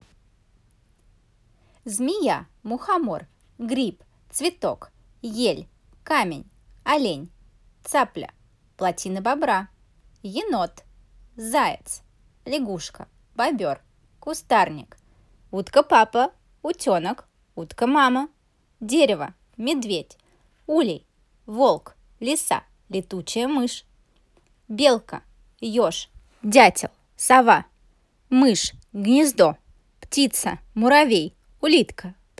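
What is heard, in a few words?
Detskiy golos, child voice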